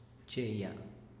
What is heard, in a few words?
"cheya", Teotitlan; tejido